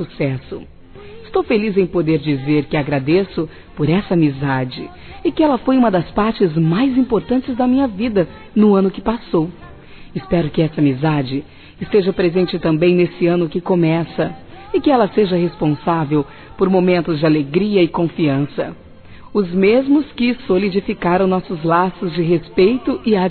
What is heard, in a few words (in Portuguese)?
ANO NOVO AMIGO(A) F; Telemensagens; Natal e Ano novo; ANO NOVO PAMIGO(A)